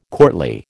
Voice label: "courtly", American

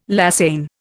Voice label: "lasagne", Indian

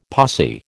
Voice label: "posse", American

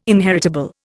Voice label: "inheritable", Indian

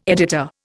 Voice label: "editor", Indian

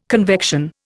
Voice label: "convection", Indian

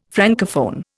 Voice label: "Francophone", Indian

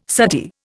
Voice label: "suttee", Indian

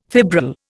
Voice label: "fibril", Indian

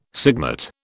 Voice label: "sigmate", British

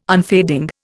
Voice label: "unfading", Indian

